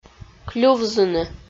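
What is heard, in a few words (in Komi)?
"клювзыны", Komi, female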